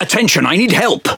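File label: Speech Quick Commands Sos, British English; World Of Warships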